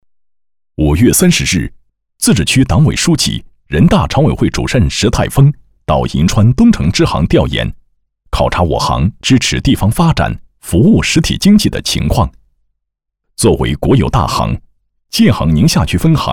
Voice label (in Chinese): 专题 新闻, 男国; 建行宁夏分行